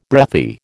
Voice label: "breathy", American